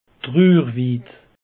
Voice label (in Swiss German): "truurwiid", alsace; peche